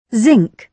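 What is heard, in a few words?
"zinc", British English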